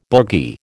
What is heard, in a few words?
"boogie", American